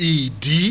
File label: "idi", Yoruba; ORAL VOWELS